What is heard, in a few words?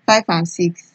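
"five and six", Nigerian Pidgin, female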